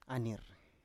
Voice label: "anir", Biak

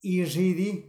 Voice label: "ixiidi", smell of wet dog

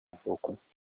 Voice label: sea, Olukumi

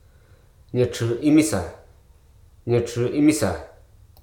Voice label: hruso, hamlet

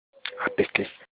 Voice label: knife, Olukumi

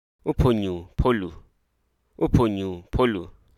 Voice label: hruso, cloak

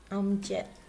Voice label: mosquito, Mokilese

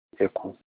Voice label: rat, Olukumi

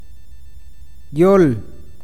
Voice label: "idioma", awakateko

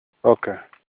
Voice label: market, Olukumi; oka

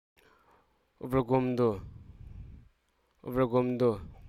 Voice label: hruso, already

